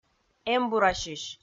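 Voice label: "эмбурасьысь", Komi, female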